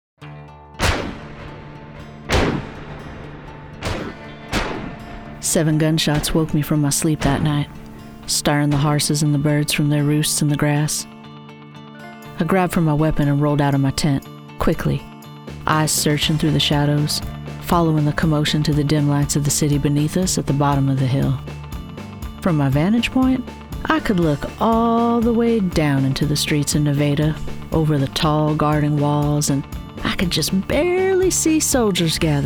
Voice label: Audiobook Sample, weird girl voice; It’s Your Funeral Home & Crematorium